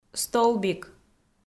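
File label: "столбик", Komi, female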